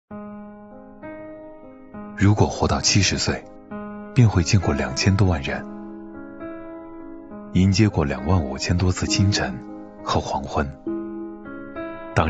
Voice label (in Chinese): 男 专题 外卖; 百度外卖